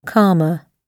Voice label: "karma", English